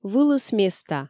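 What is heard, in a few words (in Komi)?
"вылыс места", Komi, female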